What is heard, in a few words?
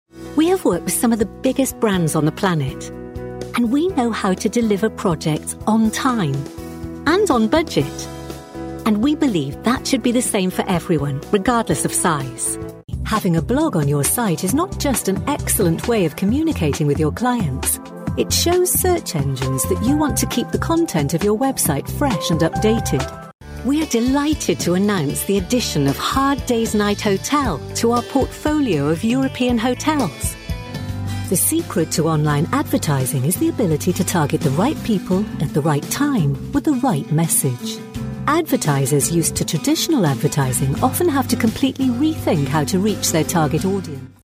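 corporate, Welsh Accented Voiceover Artist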